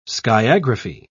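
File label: "skiagraphy", British English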